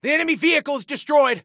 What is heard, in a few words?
Enemy Vehicle, Soldier Male; Soldier Male 01